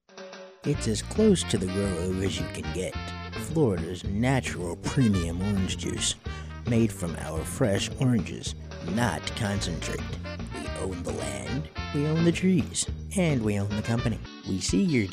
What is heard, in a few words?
voiceover